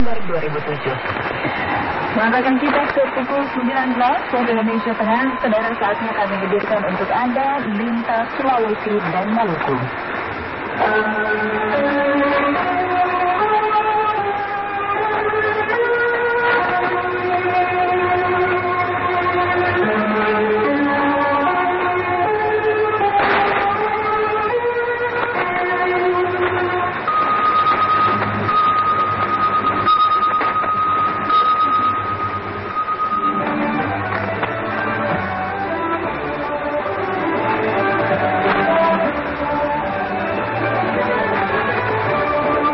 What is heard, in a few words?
Makassar 4.74996MHz; RRI